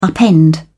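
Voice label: "upend", British English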